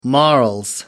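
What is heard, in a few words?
"morals", British English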